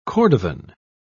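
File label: "cordovan", British English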